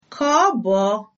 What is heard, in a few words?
goodnight, igbo